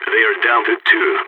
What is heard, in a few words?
two enemies left, balkan; vo